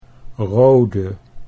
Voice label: "rode", Dutch

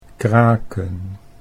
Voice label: "kraken", Dutch

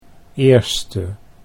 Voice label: "eerste", Dutch

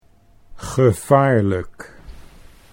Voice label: "gevaarlijk", Dutch

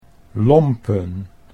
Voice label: "lompen", Dutch

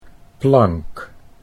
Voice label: "plank", Dutch

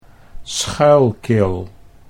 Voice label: "Schuylkill", Dutch

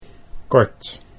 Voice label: "kort", Dutch

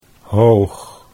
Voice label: "hoog", Dutch

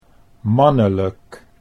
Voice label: "mannelijk", Dutch

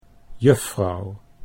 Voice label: "juffrouw", Dutch

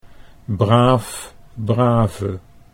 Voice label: "braaf" brave, Dutch

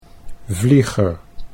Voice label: "vlieger", Dutch

